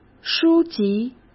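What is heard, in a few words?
"书籍", Mandarin Chinese; chinese flashcards; hsk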